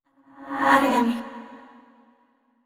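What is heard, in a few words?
neutral, voice; yamiko aragami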